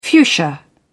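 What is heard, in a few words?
"fuchsia", British English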